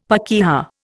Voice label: "pakeha", Indian; Dictionary